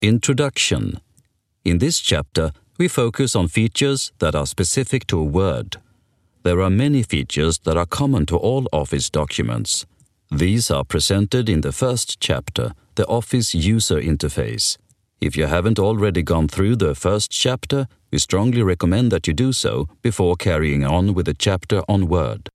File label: english e-learning